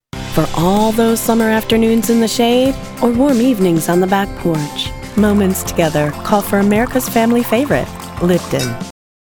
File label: Commercial; Lipton